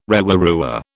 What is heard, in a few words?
"rewarewa", British